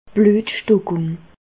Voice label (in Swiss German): "bluetstockung", Bas Rhin; medecine; thrombose